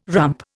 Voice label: "rump", Indian